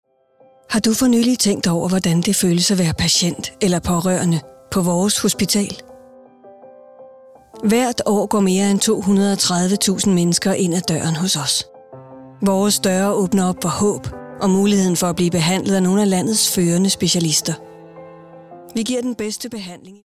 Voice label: Hospital VO; Glostrup Hospital